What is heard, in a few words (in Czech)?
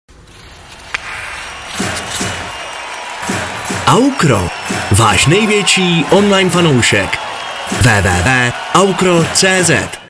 hokej, mužský; aukro